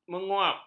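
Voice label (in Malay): "menguap", Malay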